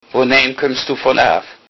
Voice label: where from, low saxon